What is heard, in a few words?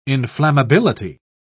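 "inflammability", British English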